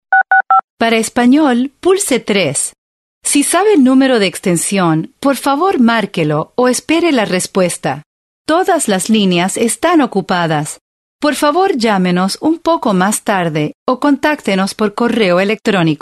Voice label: foreign woman